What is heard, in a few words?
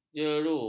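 "jeru", Malay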